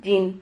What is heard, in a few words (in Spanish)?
"yin", Silabas